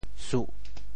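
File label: "suh4", Teochew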